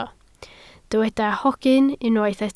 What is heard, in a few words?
"hogyn", Welsh